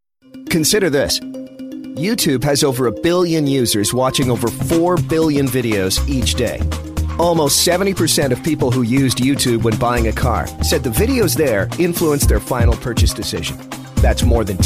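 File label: male, Canadian English, corporate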